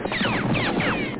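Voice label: "Hit me", speech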